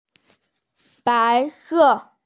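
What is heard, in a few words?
"bai2se4", Mandarin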